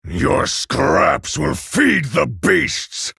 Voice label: male orc ogre threaten